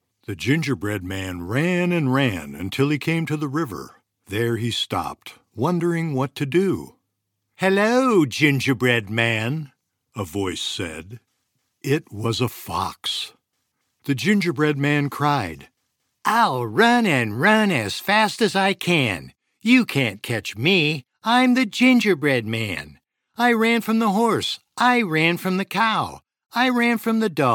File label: Childrens Audiobook